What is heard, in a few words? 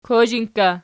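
"kozhinkka" nominative, Archi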